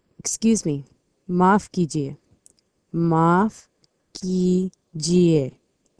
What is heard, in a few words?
Hindi Excuse